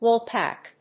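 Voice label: "woolpack", English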